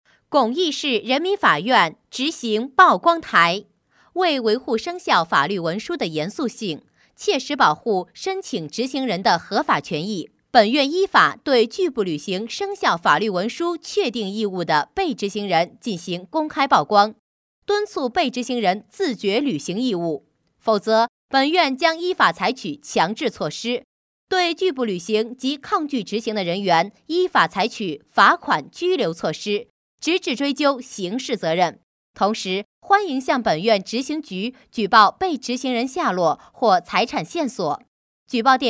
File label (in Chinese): 女 宣读 正式严肃; 法律文件宣读